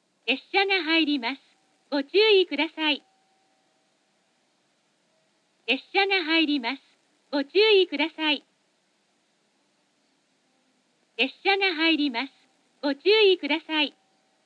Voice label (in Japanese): 門司駅 非常用接近放送 こちらは上のより1世代古いタイプ。声優はJACROSと同様ですがトーンが高いのが特徴です。; 現在は上のタイプに取替が進んでいるようです。; スペースワールド